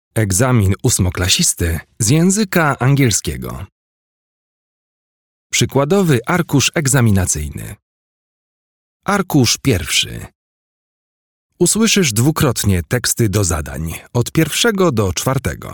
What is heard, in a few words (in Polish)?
Lektor Polski